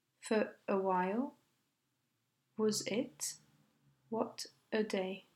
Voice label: final consonant initial vowel careful